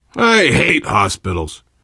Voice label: world hospital, player survivor voice biker; source engine; left 4 dead 2